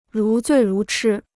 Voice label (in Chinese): "如醉如痴", Chinese